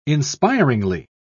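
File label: "inspiringly", British English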